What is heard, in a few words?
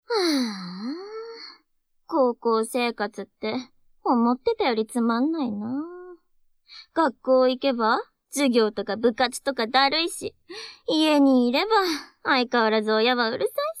serf jyoshikousei